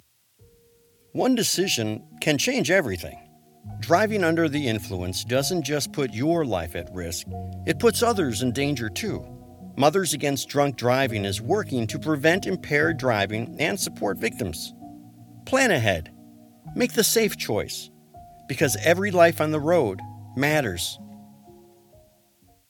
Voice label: PSA, psa voiceover; MADD